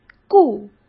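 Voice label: "顾", Mandarin Chinese; hsk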